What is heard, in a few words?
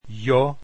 "j", Esperanto alphabet